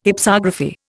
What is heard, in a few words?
"Hypsography", Indian